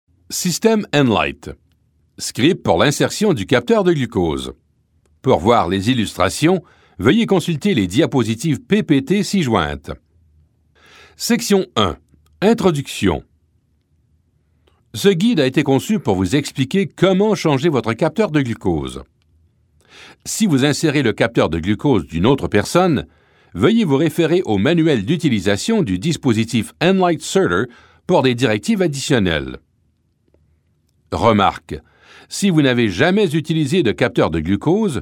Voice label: french canadian male